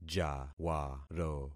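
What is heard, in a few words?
"jawaro", concatenated syllables; Affixing experiment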